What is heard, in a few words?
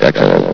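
"echo", announcer voice; Source Engine; bshift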